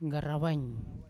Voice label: "ngarawain" far, Yawa